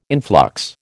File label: "influx", American